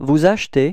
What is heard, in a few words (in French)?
"acheter vous", frans